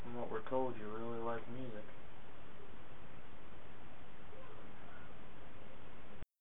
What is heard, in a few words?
Childs Voice; jersey city